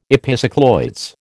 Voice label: "epicycloids", American